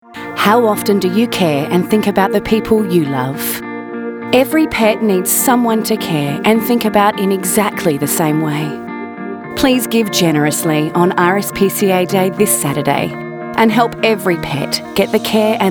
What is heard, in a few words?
Voice Over